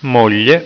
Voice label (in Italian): "moglie", italiaans